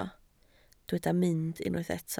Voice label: "mynd", Welsh